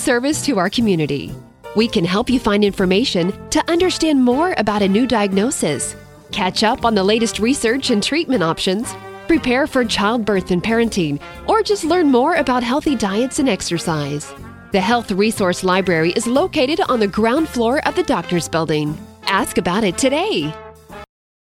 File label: hospital, femalevoices; audio on hold